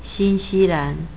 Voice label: "xinxilan", Chinese, said in mainland China; New-Zealand = Xin1-xi1-lan2

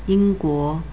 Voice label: "yingguo", Chinese; England = Ying1-guo2

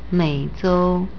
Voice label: "meizhou", Chinese; America = Mei3-zhou1

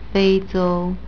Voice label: "feizhou", Chinese; Africa = Fei1-zhou1

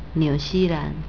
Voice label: "niuxilan", Chinese, said in Taiwan; New-Zealand; Niu3-xi1-lan2

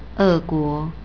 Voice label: "eguo", Chinese; Russia = E4-luo2-si1 or; E4-guo2

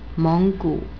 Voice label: "menggu", Chinese; Mongolia = Meng2-gu3

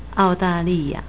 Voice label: "aodaliya", Chinese; Australia = Ao4-da4-li4-ya4

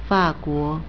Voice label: "faguo", Chinese; France = Fa4-guo2

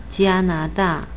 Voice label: "jianada", Chinese; Canada = Jia1-na2-da4

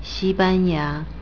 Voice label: "xibanya", Chinese; Spain = Xi1-ban4-ya3

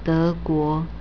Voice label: "deguo", Chinese; Germany = De2-guo2

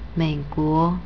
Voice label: "meiguo", Chinese; U.S.A. = Mei3-guo2

